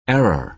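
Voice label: "error", American English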